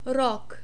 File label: "rok", czech